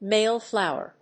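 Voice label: "male flower", English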